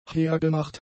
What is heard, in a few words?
"hergemacht", German; adjectives